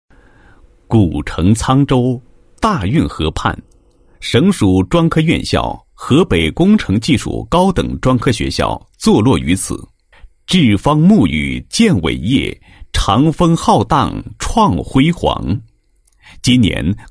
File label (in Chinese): 男声 企业专题; 国语男声配音; 高音经济型; 栉风沐雨建伟业